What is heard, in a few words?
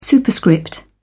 "superscript", en uk